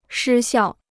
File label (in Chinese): "失效", Chinese